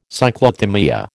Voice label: "Cyclothymia", American

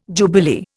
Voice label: "jubilee", Indian

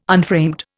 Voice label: "unframed", Indian

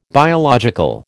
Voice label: "biological", American

